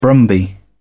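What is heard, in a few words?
"brumby", English, British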